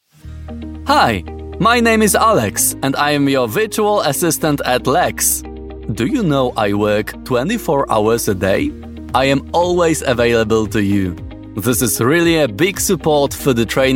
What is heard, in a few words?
polish voiceover english with a polish accent